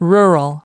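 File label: "rural", English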